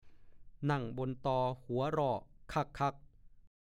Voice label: "นั่งบนตอหัวร่อคั่ก ๆ", ภาษาโคราช; (ปริศนาคำทาย) หม้อข้าวเดือด

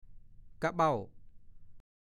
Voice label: "กะเบ้า", ภาษาโคราช; 1. เบ้า เช่น กะเบ้าตา

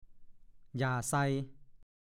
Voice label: "หย่าไซ", ภาษาโคราช; หญ้าชนิดหนึ่งขึ้นตามหนองน้ำ

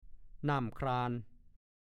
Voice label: "น่ำขุ่นอยู่ใน น่ำใสอยู่นอก", ภาษาโคราช; 1. (สำนวน) เก็บความรู้สึกเอาไว้อย่าให้ใครรู้เห็นแม้จะไม่พอใจก็ยังแสดงสีหน้าปกติ ทำนองหน้าชื่นอกตรม

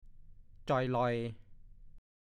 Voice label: "จอย", ภาษาโคราช; ผอม โซ

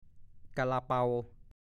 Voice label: "กาละเปา", ภาษาโคราช

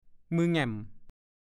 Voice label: "มือแง่ม", ภาษาโคราช

